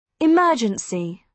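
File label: "emergency", British English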